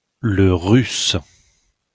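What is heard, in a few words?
"le russe", pronunciation, French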